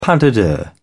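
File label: "pas de deux", English, British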